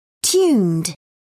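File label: "tuned", English, British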